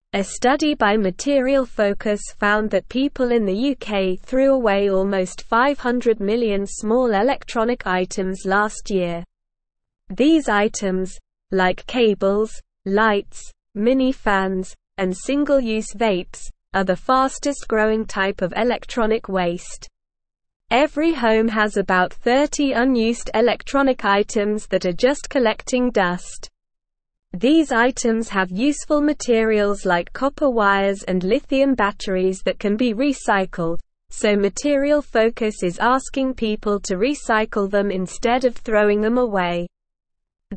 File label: English Newsroom Lower Intermediate SLOW Reading; People in the UK throw away lots of electric things